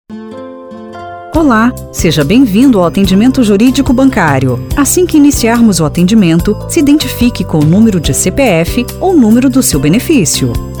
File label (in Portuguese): espera atendimento juridico bancario